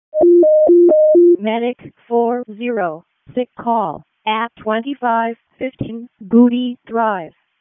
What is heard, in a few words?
EMS ALERT, p25; wake